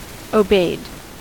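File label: "obeyed", US English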